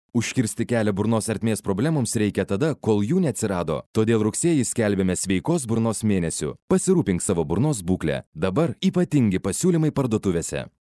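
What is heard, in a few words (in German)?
litauisch, Lithuanian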